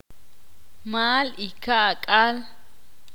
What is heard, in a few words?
ixil